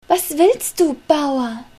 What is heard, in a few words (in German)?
Bauer naiv